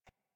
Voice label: Intelligible Whisper E; Rell; Warframe; Harrow